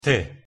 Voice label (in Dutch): "tè", Italiaans; thee-in-andere-talen